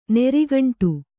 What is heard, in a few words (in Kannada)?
"ನೀರ್ಗುಂಡಿ", Kannada